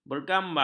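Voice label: "bergambar", Malay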